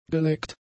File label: "geleckt", German; adjectives